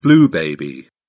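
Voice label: "blue baby", British English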